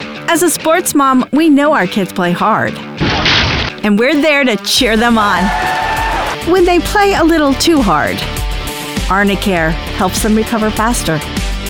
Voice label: Sports Mom; Arnicare

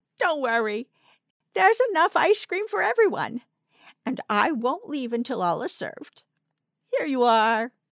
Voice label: Senior Citizen Helpful Reassuring

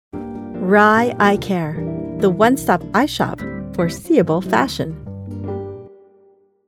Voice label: Cocky Tag Announcer; Rye Eye Care

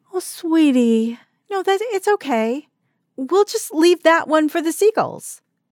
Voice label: Understanding Mom Supportive Caring

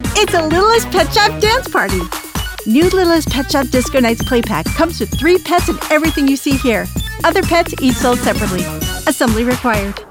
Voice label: Toys Bright; Littlest Pet Shop

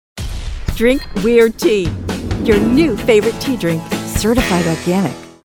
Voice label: Weird Tea Spokesperson Ironic

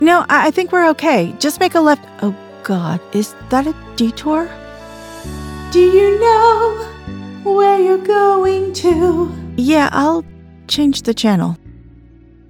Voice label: Conversational Read; FEMALE VOICEOVER

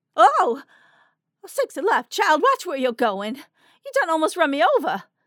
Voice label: Southern Woman Surprised Annoyed

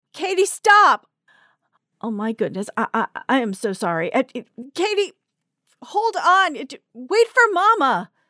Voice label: Frazzled Mom Apologetic

